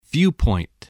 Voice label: "viewpoint", English